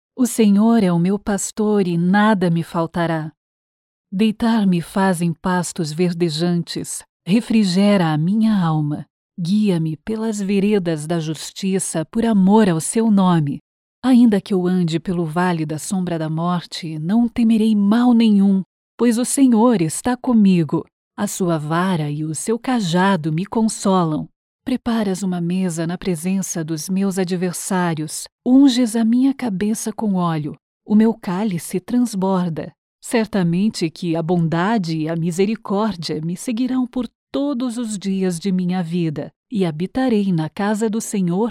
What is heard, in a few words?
portuguese brazilian commercial